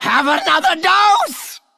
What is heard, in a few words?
Male Undead Attack